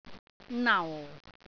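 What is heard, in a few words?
"nau4", Cantonese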